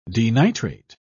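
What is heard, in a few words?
"denitrate", British English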